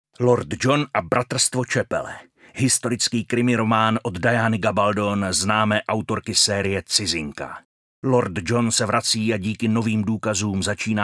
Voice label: czech male